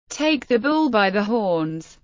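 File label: "Take the bull by the horns", English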